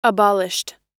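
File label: "abolished", American English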